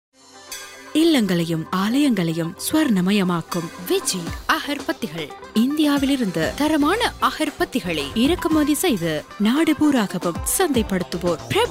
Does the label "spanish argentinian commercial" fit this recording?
No